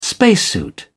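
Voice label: "spacesuit", English, British English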